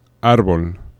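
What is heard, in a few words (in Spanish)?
"arbol", Spanish; laboratori fonetica; aproximants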